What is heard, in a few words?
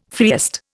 "freest", Indian